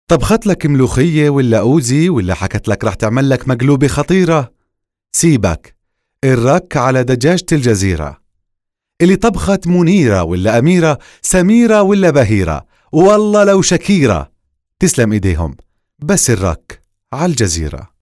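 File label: voice over Jordan Arabic accent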